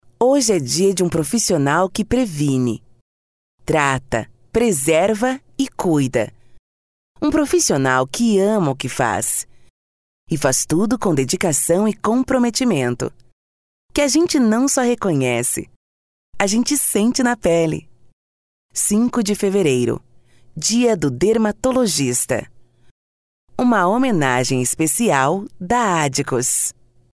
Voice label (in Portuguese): PADRÃO INSTITUCIONAL